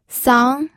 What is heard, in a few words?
"sang2", pinyin